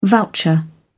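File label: "voucher", British English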